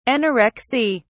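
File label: "anorexy", British English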